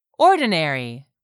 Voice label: "ordinary", English; 3000 words